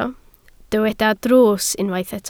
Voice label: "drws", Welsh

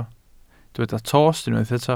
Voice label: "tost", Welsh

